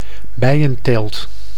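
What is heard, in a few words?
"bijenteelt", Dutch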